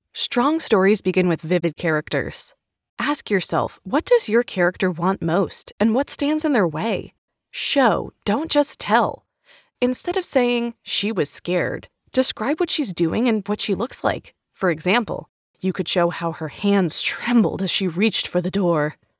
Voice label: Writing eLearning Sample; elearning corporate training